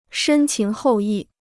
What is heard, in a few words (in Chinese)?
"深情厚谊", Chinese